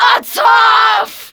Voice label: Attack, Female; Warframe; Grineer Female; Battle Barks